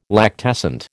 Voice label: "lactescent", American